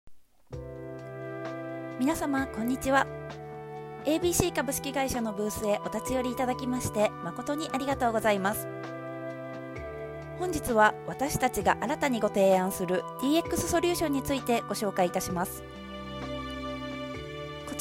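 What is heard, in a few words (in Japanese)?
展示会, ナレーター MC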